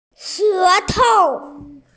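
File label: "shé tou", Mandarin Chinese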